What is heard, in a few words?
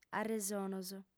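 "arresonos", lingua sarda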